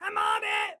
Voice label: self task on it, excited guy